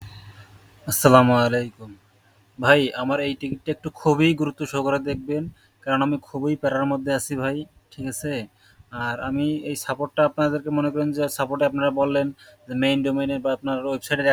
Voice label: voice message